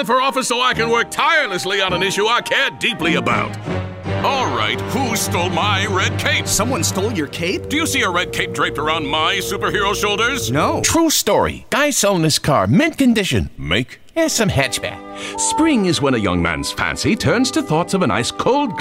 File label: Charactor read